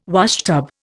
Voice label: "washtub", Indian